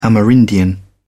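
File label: "amerindian", English, British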